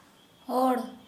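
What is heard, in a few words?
Santali, female, man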